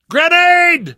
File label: grenade, survivor; source engine; left 4 dead 2; namvet